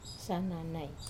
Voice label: "sananai" spear, Wamesa